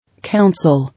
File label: "Council", English